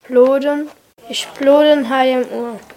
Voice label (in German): "blooden" im Satz